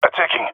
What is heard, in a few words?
attacking, jungle male epic; player vo; Counter Strike Model Pack; CS:GO